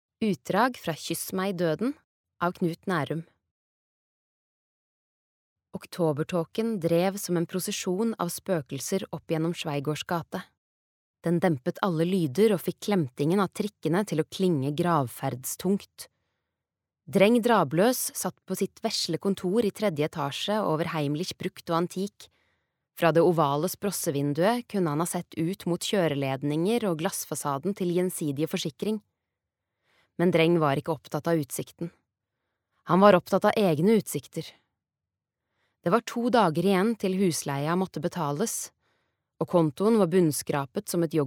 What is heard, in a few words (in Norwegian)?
lydbok, norsk, kvinne